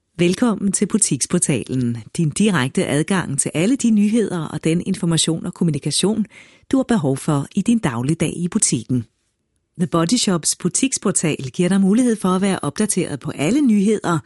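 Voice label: Danish, female, elearning; voice over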